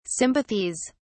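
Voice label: "sympathies", English, British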